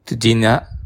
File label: help, bonggi